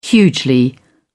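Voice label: "hugely", English, uk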